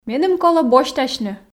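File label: Komi, female; меным колӧ босьтасьны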